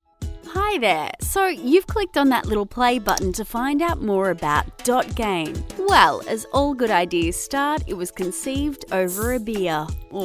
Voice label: Explainer